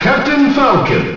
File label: Announcer; Captain Falcon; SSBM